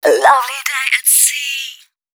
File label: female spoken hooks, dance ultra voice